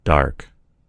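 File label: "dark", American English; gcompris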